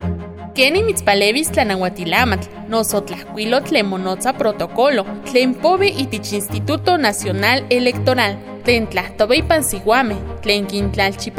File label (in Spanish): NAHUATL